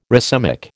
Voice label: "racemic", American

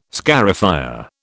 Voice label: "scarifier", British

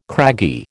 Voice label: "craggy", American

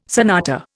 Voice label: "sonata", Indian

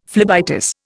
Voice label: "phlebitis", Indian; Dictionary

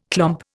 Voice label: "clomp", Indian

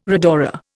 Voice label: "rhodora", Indian

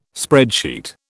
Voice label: "spreadsheet", British

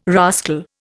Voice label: "rascal", Indian

